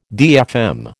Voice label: "DFM", American